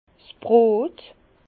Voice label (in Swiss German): "brot", Bas Rhin; vin; pain